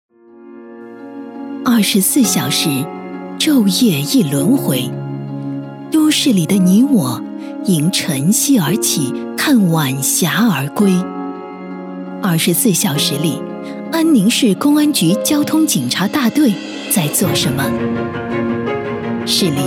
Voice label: 女, 专题片 交警宣传片; 忠诚保大庆

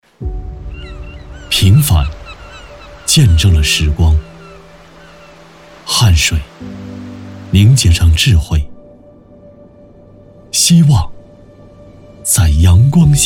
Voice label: A男 宣传片年轻; 这一路，逐梦好风光

